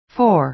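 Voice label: "for", American English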